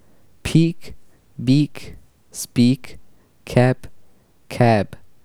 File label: plosives